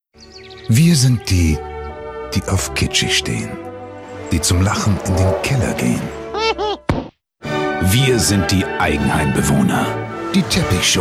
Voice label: male german comercial; ideasonora

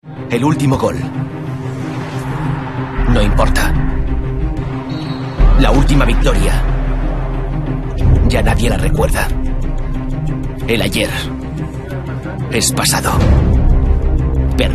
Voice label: male spanish football comercial; ideasonora

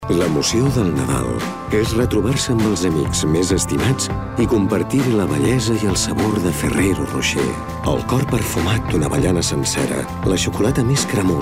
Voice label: male catalan sweet comercial

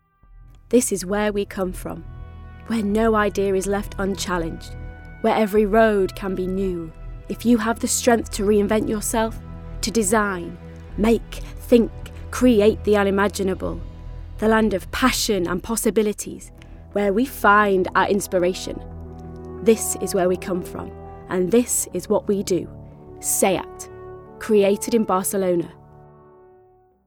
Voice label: female british english car comercial; ideasonora

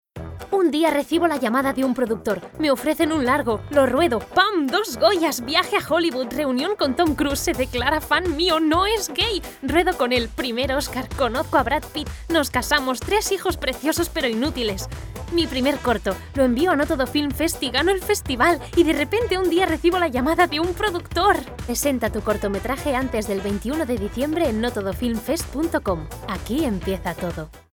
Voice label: female spanish comercial

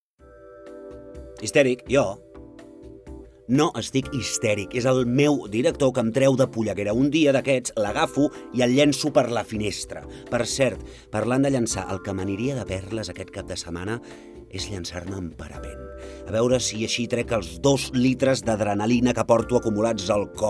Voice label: male catalan outlet comercial

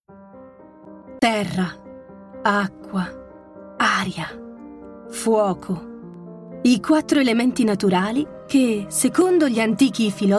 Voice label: female italian comercial; ideasonora

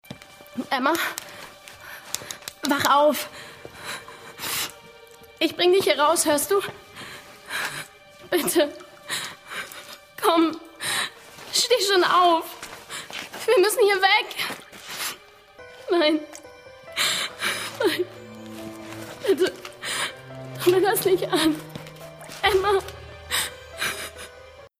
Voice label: female german commercial; ideasonora

